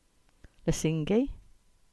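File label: "Lesingey", English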